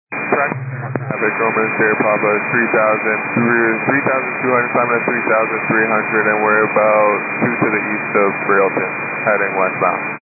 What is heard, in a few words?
122 925MHz, scanner radio